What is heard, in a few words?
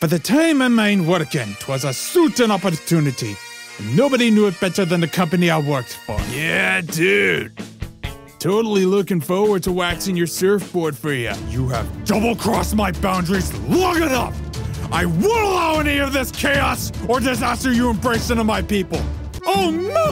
Animation